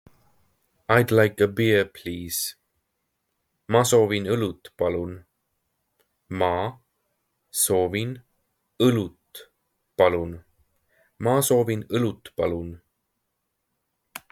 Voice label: I'd like a beer please, Estonian phrasebook; the talking estonian phrasebook

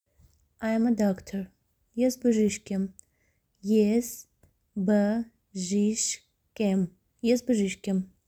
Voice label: I am a doctor Armenian Es bzhishk em

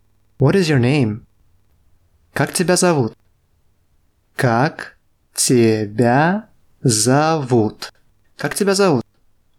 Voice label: RUS What is your name

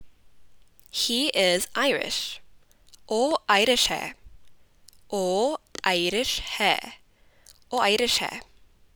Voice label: Punjabi He is Irish

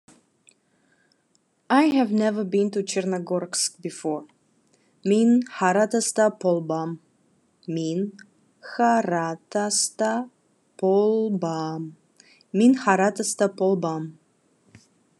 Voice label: Khakas I have never been to Chernogorsk before

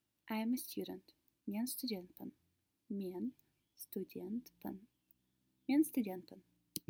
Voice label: I am a student, kazakh

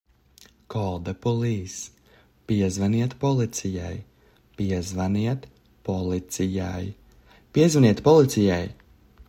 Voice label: Call the police, Latvian; the talking latvian phrasebook

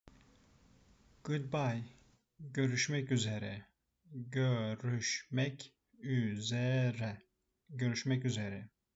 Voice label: good bye, turkish; the talking turkish phrasebook

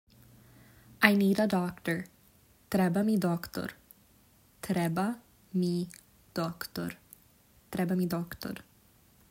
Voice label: I need a doctor, serbian

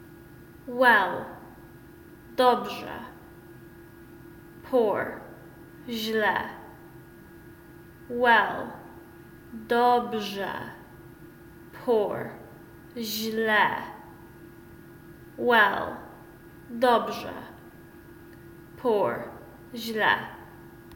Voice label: well poor, Polish; the talking polish phrasebook

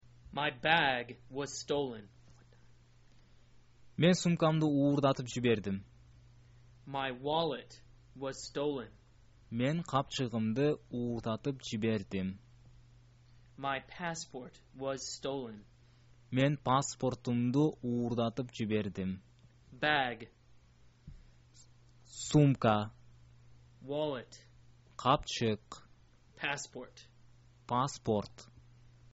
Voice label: bag wallet passport stolen, kyrgyz

